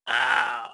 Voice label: man call